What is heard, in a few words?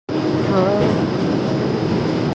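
"ke3ai4", Mandarin Chinese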